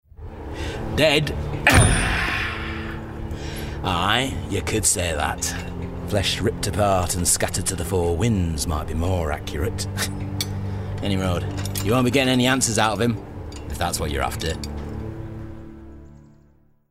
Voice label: Gaming Blacksmith Northern

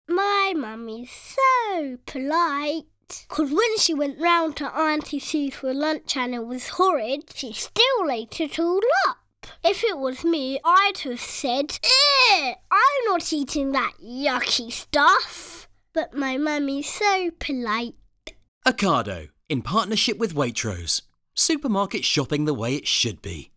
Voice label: POLITE Ad; OCADO; Ocado – Funny

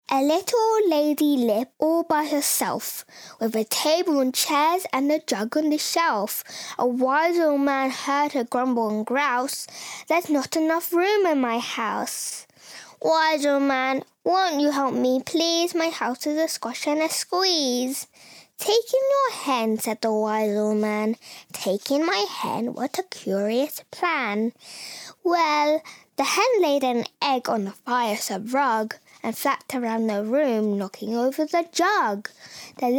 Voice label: Bookread; A Squash And A Squeeze – Storytelling

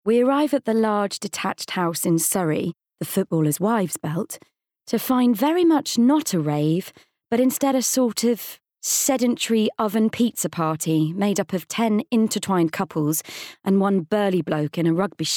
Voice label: Bookread; Everything I Know about Love by Dolly Alderton

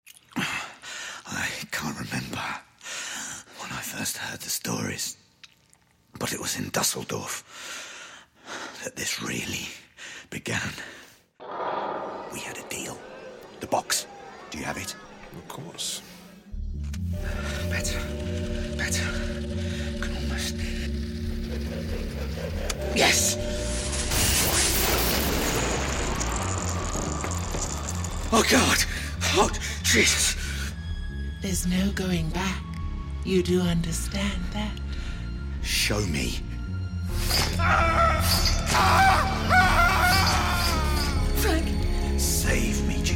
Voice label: Audiobook; Hellbound Heart by Clive Barker

